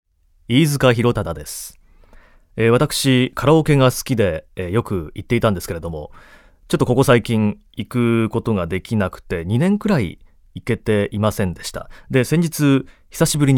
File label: Free Talk